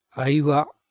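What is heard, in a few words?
"aiwa", Proto-Indo-Iranian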